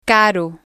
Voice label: "caro", spanish